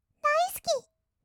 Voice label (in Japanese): だいすき, kawaii voice; ASMR; 色々なワンショット